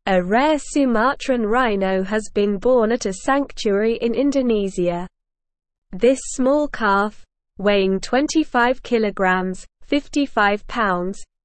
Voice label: English Advanced SLOW Reading; English Newsroom; Rare Sumatran Rhino Born in Indonesia Boosting Conservation

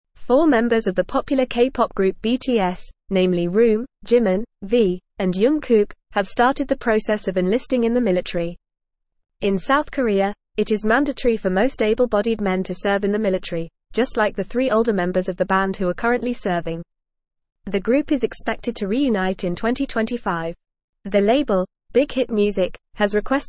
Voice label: English Advanced FAST Reading; English Newsroom

